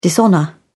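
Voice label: "dishonour", British English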